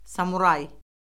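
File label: "samuray", Azerbaijani; Orfoqrafiya Lüğəti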